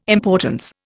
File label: "importance", Indian